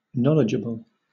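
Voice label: "knowledgeable", British English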